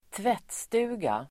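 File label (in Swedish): "tvättstuga", swedish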